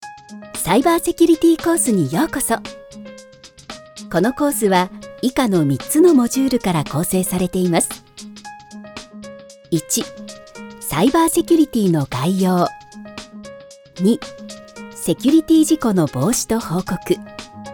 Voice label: japanese e-learning, japanese voice actors

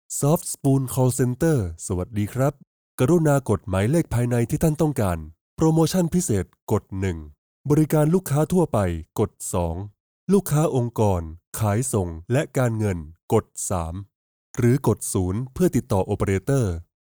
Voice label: thai ivr

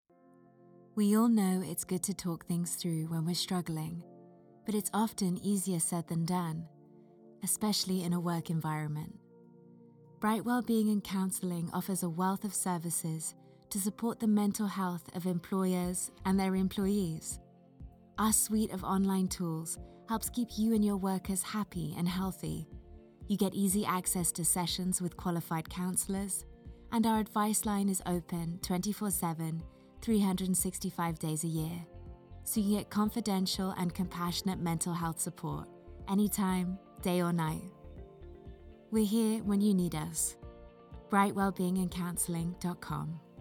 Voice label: english british corporate videos

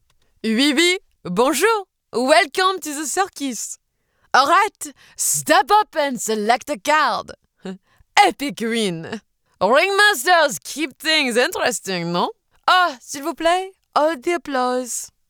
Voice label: english international impersonations; voice actor

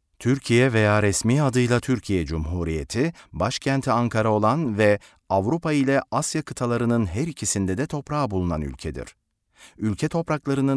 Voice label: turkish narration

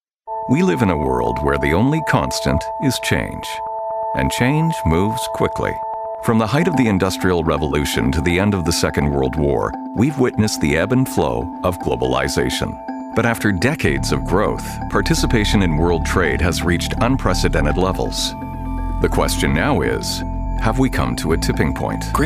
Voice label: english international corporate videos; Voz masculina; neutral en inglés norteamericano; sin acento regional

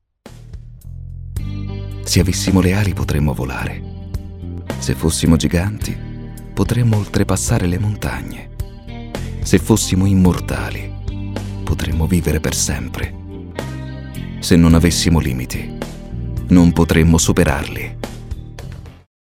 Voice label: italian automotive

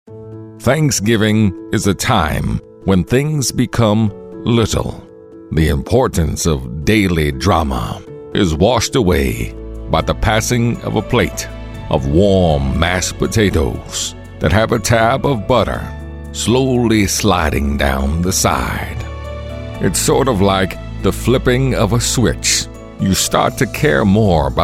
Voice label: english american impersonations; professional English voice actor

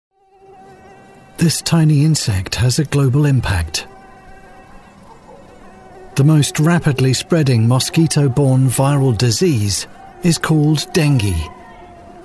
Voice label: english british documentaries

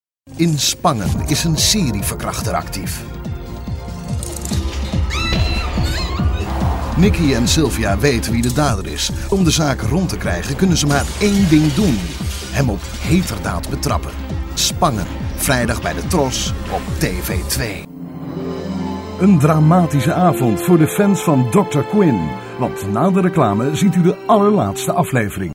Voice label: dutch promos

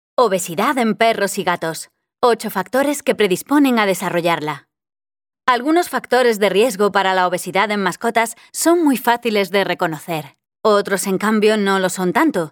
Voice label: spanish podcasts; actriz de doblaje española

